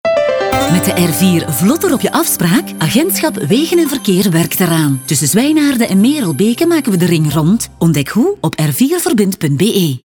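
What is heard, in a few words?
FL F commercial, Flemish, female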